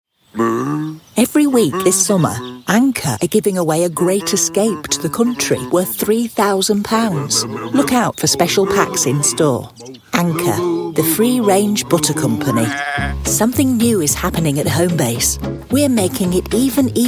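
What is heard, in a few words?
commercial, female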